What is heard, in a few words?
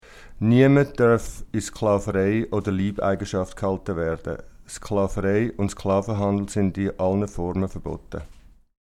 Swiss